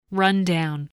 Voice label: "rundown", English spelling

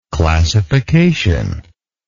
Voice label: "classificational", English

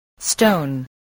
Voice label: "stone", voice

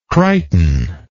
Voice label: "crichton", English

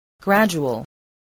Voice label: "gradual", spelling

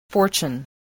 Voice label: "fortune", English voice, spelling

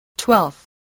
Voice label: "twelfth", English spelling voice

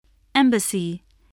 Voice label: "embassy", spelling

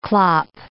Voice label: "clop", English

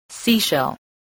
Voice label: "seashell", english spelling

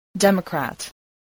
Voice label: "democrat", English

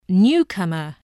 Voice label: "newcomer", voice

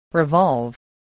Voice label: "revolve", English

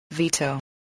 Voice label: "veto", English

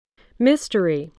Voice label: "mystery", voice

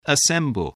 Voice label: "assemble", English

